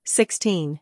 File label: "sixteen", voice